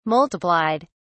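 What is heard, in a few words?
"multiplied", English, British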